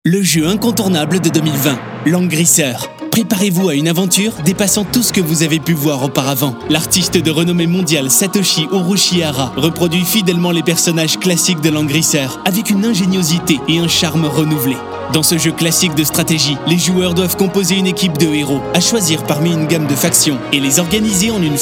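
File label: French, male, commercial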